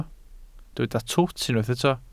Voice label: "twt", Welsh